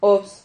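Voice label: "obs", Spanish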